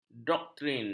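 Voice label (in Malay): "doktrin", Malay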